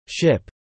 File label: "ship", English